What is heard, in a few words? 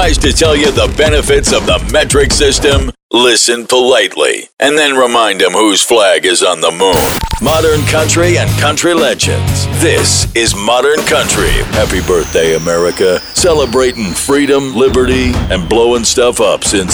english american radio imaging, american voice actors